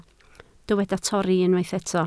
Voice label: "torri", Welsh